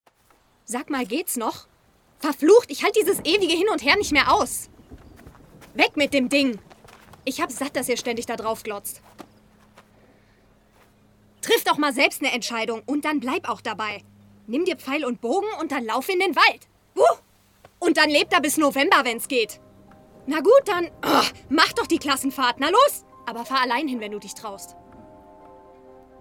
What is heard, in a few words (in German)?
Rolle wuetend